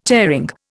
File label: "tearing", Indian